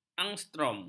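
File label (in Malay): "angstrom", Malay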